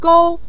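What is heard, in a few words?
"gou", Mandarin Chinese, neutral tone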